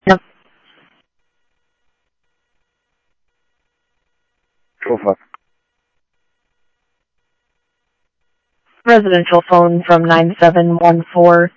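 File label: Paradise Valley PD Dispatch, scanner audio; H-Scottsdale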